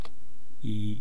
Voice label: "y", East Cree syllabics; language